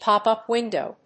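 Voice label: "pop-up window", English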